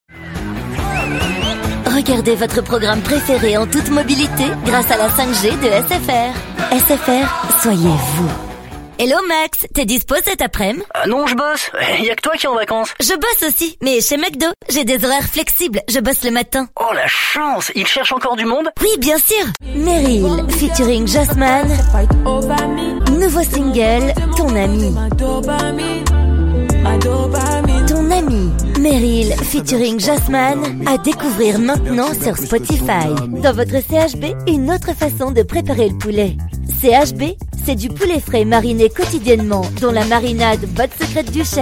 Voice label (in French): publicites